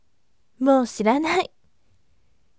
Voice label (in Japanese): voice moushiranai yasashime